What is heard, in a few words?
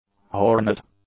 "hornet", English; nouns